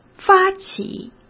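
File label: "发起", Mandarin Chinese; hsk; chinese flashcards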